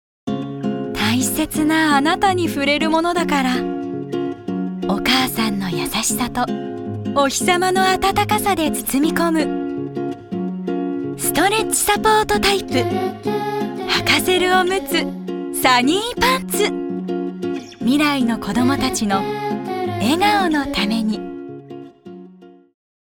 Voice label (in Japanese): 温もり優しさ